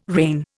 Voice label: "reign", Indian